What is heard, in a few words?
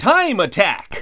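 announcer, Japanese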